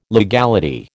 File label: "legality", American